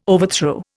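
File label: "overthrow", Indian English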